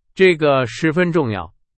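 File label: Chinese, example sentence; hsk2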